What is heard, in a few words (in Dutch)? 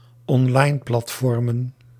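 "onlineplatformen", Dutch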